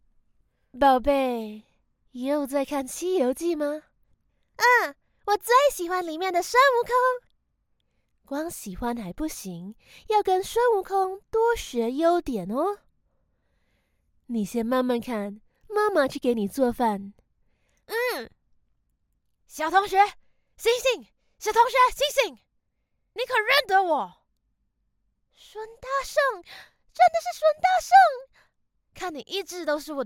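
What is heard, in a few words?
Chinese; GoLocalise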